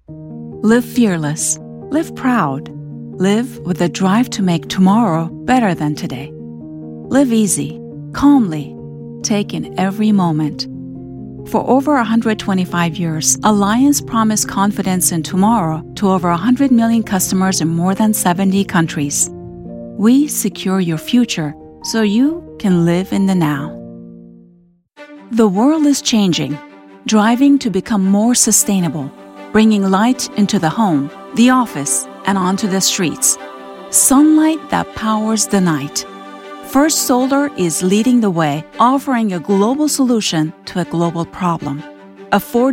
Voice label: female farsi transatlantic accent corporate compilation